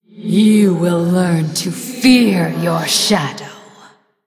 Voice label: Female Blood Elf Threaten; Valeera Deathknight